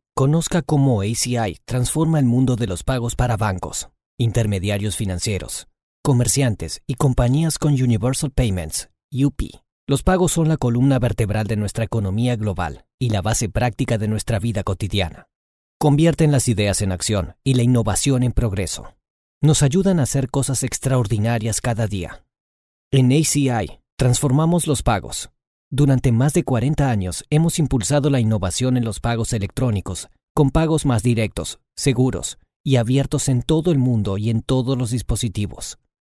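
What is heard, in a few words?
Latin American Spanish corporate; GoLocalise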